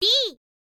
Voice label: "d", girl; voice